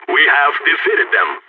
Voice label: radio bot end solid, balkan; vo